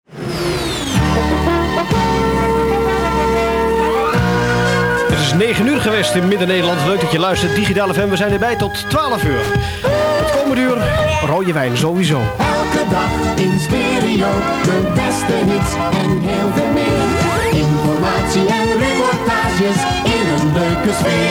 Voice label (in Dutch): Jingle - Digitaal stationsong; Digitaal Stationsong 1987